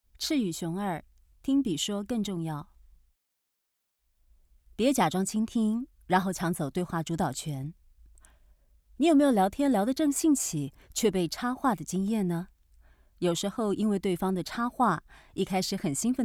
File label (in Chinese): 知性 旁白; 活潑輕快旁白